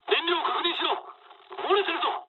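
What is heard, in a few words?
Japanese tank damaged mood low; War Thunder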